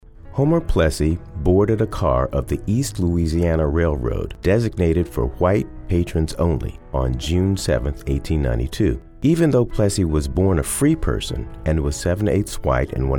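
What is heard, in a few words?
Narration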